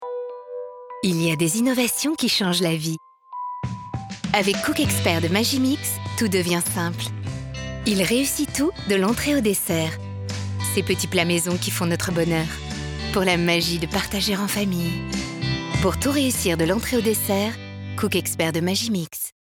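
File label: female, French, PUB TV; MAGIMIX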